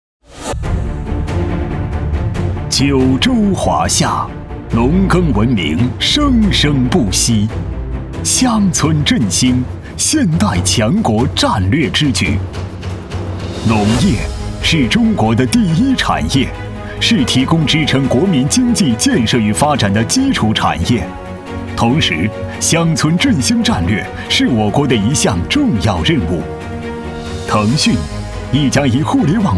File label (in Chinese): 男,激昂高亢,科技宣传; 腾讯云